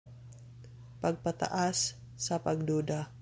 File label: Cebuano; raising suspicions; pagpataas sa mga pagduda